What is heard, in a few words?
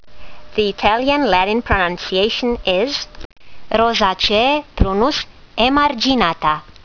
latin; Prunus emarginata